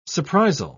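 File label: "surprisal", British English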